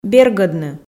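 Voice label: "бергӧдны", Komi, female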